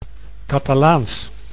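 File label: "Catalaans", Dutch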